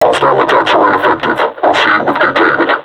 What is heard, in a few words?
taunt, vo combine suppressor; Half Life Alyx